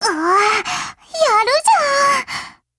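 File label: BREAK Japanese, Voice; GF Alarm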